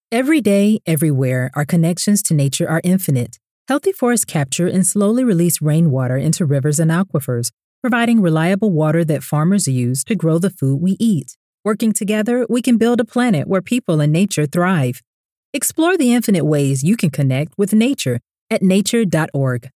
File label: English Voice Over